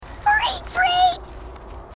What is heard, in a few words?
grunt; h2dialogue; grunt crazy